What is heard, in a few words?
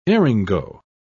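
"eryngo", British English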